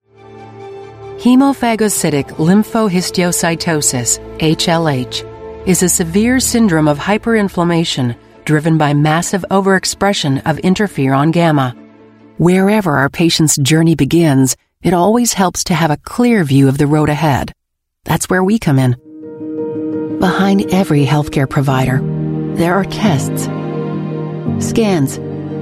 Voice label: US English Medical

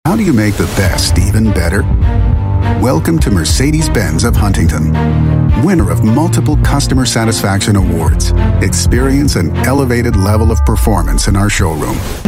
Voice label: US English; Mercedes Benz

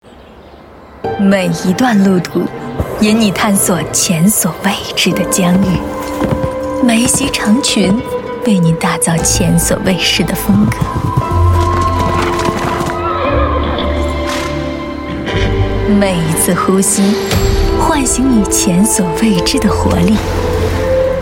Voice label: Mandarin China Commercial; Saudi Tourism

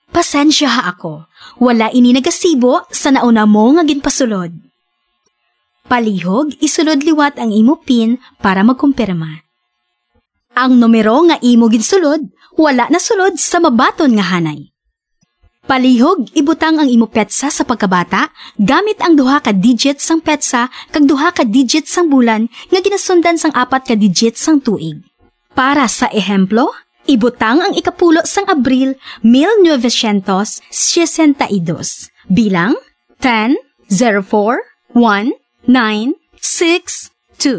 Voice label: Ilonggo-Hiligaynon VO, Filipino Female

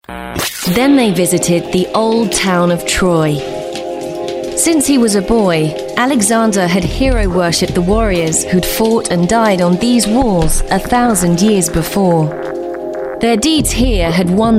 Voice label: UK English Narration

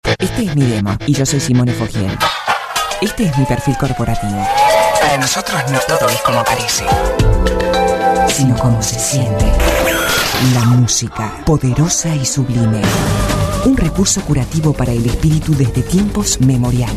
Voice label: Latin Spanish F

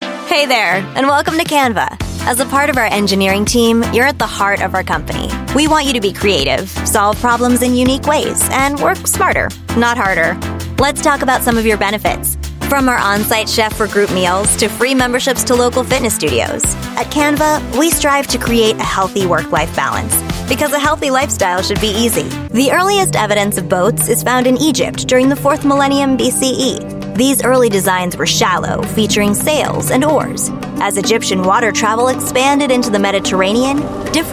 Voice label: US English E-Learning Samples

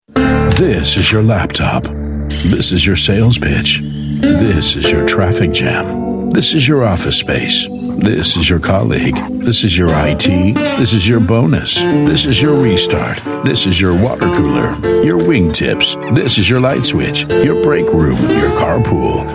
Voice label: US English TV Promo Read

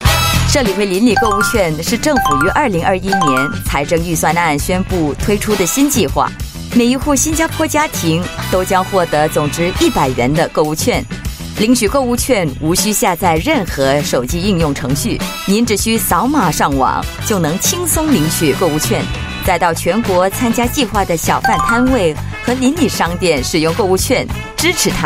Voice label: Mandarin; CDC Vouchers Scheme

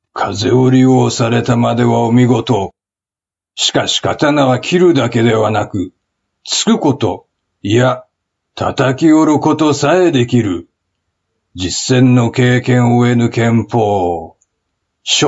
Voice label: Japanese; Ronin4

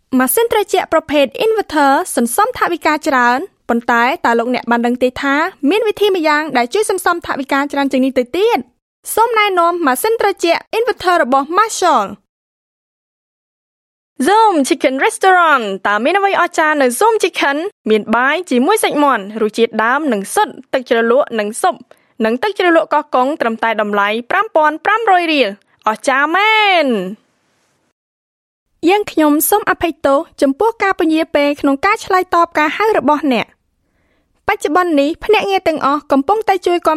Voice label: Khmer Female

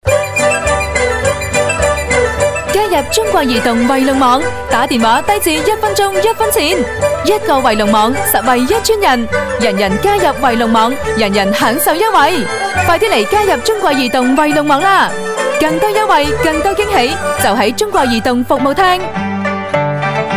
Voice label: Cantonese TVC, Cantonese Female

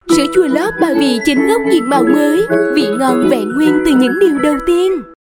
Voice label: South TVC Female, Vietnamese; Sữa; Lof

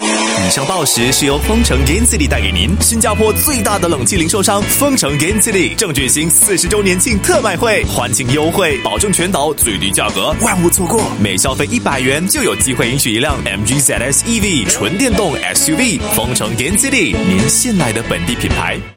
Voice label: Mandarin Time check; Gain City

